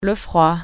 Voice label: cold, french